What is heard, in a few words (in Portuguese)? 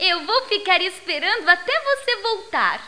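"sim", Portuguese; GEOGRAFIA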